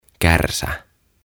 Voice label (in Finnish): "Kärsä" "Trunk"; OTAVA KONSERNI